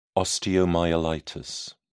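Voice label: "osteomyelitis", British English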